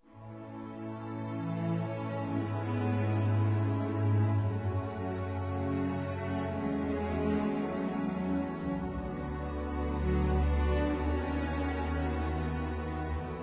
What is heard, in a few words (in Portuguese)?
AGRADECIMENTO AO PAI M; Aniversários; Aniv Familiar